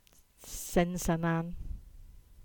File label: Cornish; Sennen